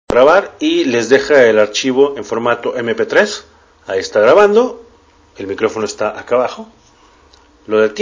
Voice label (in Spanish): Nota de voz